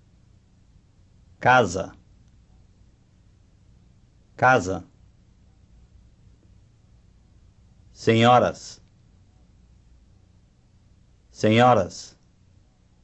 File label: From Spanish to Portuguese; FSI